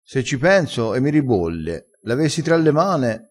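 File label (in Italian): "e mi ribolle", vocabolario dialettale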